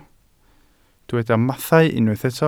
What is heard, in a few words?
"mathau", Welsh